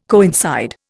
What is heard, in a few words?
"coincide", Indian